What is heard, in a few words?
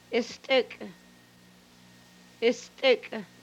narrow, hupa